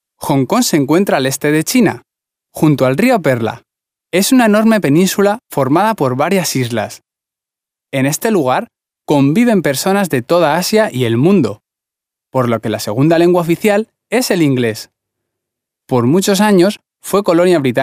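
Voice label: Spanish male corporate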